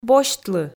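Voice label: "босьтлы", Komi, female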